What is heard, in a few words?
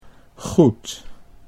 "goed", Dutch